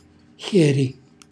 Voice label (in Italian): "xjeri", calabrese; χjèri; straccio; cucinacalabrese; glossario